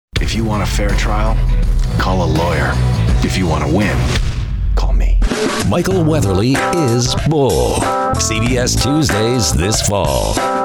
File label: Networks TV promos